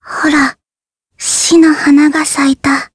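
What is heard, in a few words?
Vox Skill Japanese; Jane; kingsraid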